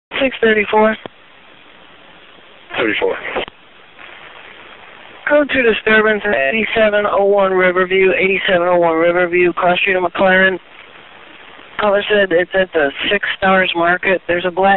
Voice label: Dispatch